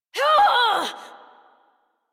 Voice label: Adult; Warframe; Duviri Paradox Quest